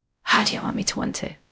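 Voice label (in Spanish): Voice Acting, es, female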